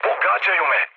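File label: Serbian aircraft damaged mood low, speech; War Thunder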